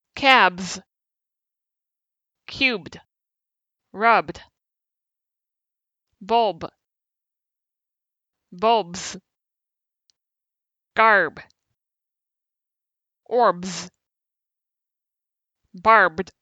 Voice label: b final clusters

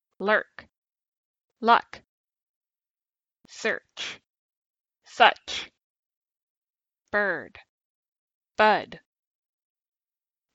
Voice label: compare er uh words, English phonetics

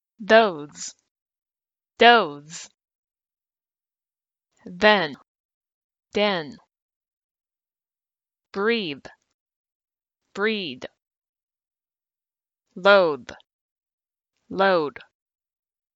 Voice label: compare eth d words, English phonetics

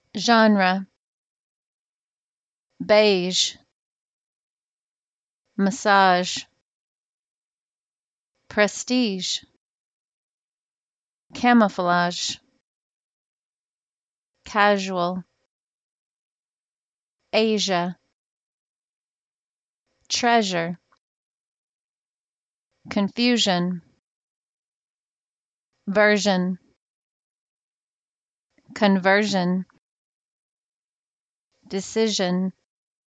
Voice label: zh words am

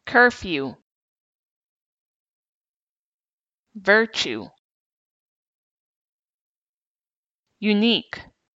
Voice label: unstressed uw

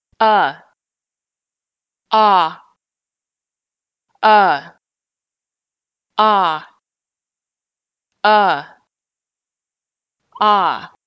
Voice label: compare uh ah, English phonetics

